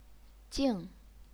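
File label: "jing", Chinese, fourth tone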